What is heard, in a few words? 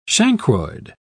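"chancroid", British English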